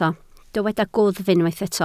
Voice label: "gwddf", Welsh

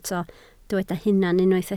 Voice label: "hunan", Welsh

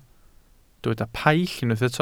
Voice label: "paill", Welsh

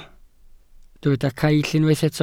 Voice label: "caill", Welsh

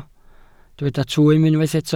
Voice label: "twym", Welsh

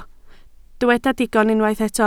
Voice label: "digon", Welsh

